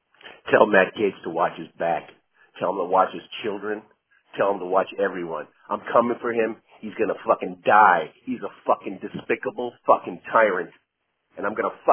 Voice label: Voicemail